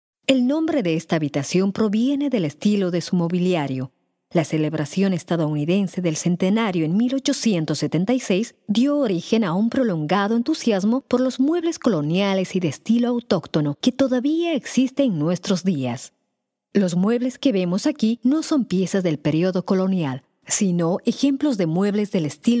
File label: Spanish Silver Maple Room